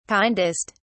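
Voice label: "kindest", British English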